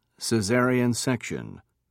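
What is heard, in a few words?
"cesarean section", British English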